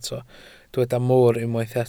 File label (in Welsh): "moor", Welsh